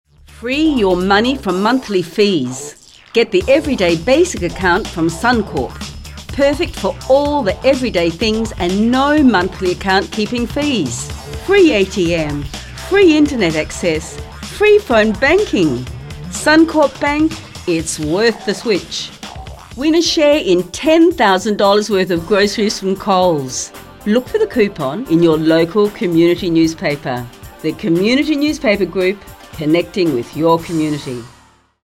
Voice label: Voice Retail; Voice Reel